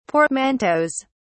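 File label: "portmanteaus", British English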